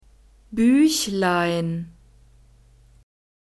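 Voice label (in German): "Büchlein", German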